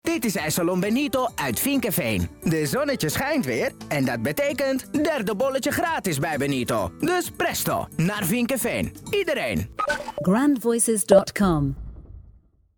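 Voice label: male Italiaans accent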